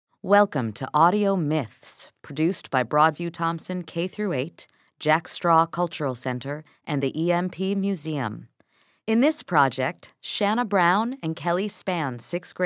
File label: Opening Narration; Broadview-Thomson Audio Myths 2014